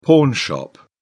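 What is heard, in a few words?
"pawnshop", British English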